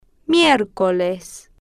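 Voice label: "mier-co-les", Spanish, female, slow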